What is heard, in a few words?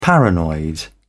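"paranoid", British English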